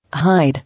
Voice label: "Hide", English